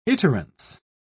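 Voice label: "iterance", British English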